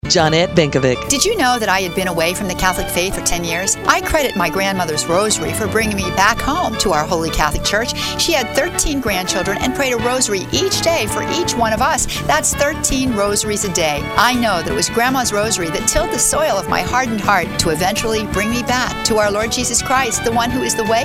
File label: Network Promo; Women of Grace